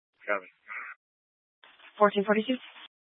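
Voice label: Fire Dispatch, scanner audio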